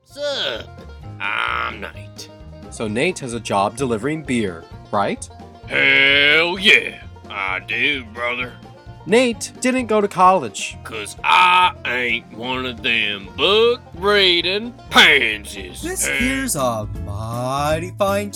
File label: Voiceover Character; Voiceover Reel